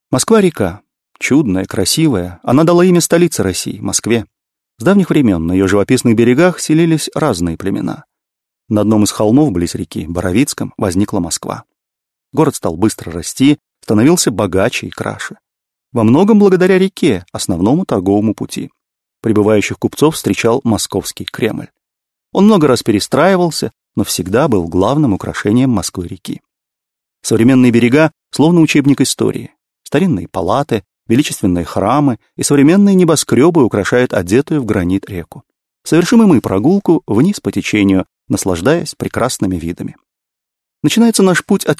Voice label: russian male